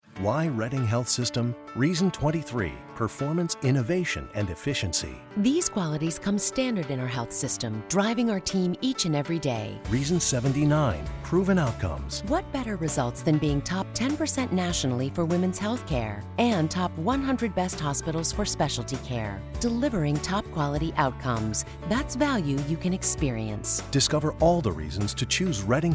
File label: radio spot; Reading Health Sys